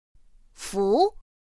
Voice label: "fú", pinyin; pinyin2; pinyinji

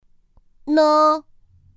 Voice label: "n", pinyin; pinyin2; pinyinji

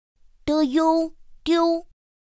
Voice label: "d-iū-diū", pinyin; pinyin2; pinyinji

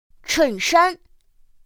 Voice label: "chènshān", pinyin; pinyin2; pinyinji

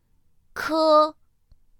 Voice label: "k", pinyin; pinyin2; pinyinji

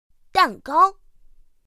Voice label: "dàngāo", pinyin; pinyin2; pinyinji

